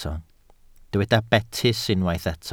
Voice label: "betys", Welsh

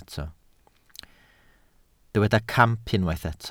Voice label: "camp", Welsh

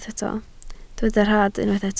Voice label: "rhad", Welsh

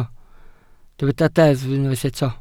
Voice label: "deddf" Welsh

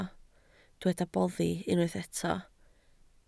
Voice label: "boddi", Welsh

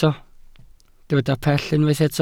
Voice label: "pell", Welsh

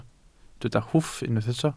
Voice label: "hwff" Welsh

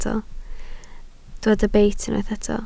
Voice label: "beit", Welsh

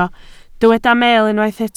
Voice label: "meel", Welsh

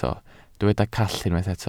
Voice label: "call", Welsh

